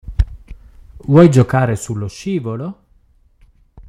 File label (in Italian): italiano